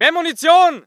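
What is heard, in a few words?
voice german infantry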